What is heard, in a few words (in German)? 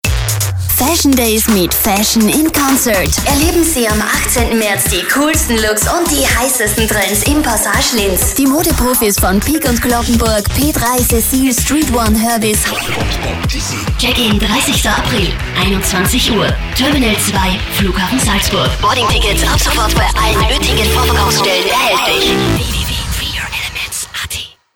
Werbespots Stimme